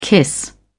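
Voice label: "kiss", British English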